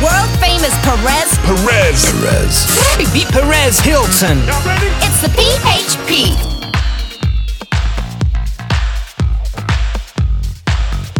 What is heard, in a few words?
Podcast Ident; PHP; Icarus